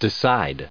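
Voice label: "decide", US English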